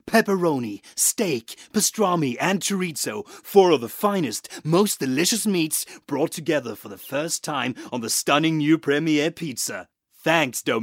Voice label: English, Norwegian, Scandinavian, Male, Home Studio, 20s-40s, voiceover